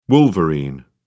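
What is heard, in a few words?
"wolverine", British English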